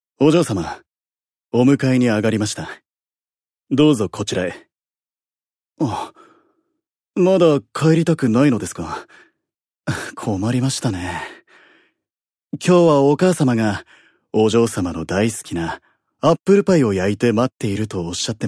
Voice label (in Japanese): アニメ